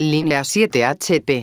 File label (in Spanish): linea 7HP, megafonias; exterior